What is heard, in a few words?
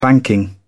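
"banking", British English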